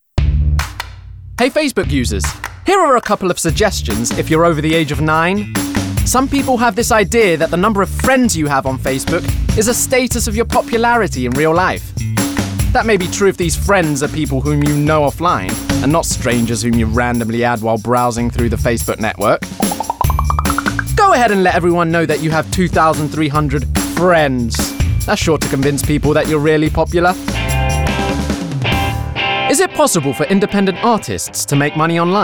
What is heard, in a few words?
Explainer